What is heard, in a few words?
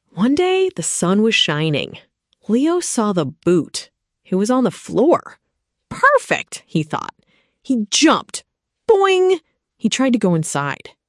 narration; o gato no sapato; historia en A2